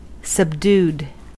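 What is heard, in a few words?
"subdued", US English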